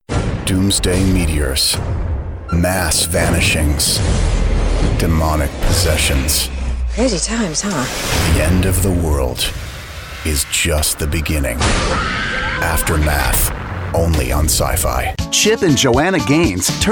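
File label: M, English US, male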